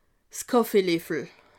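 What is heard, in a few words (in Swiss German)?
"kaffeeleffel", Alsatian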